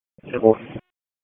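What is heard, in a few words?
Wake Forest Police Dispatch, p25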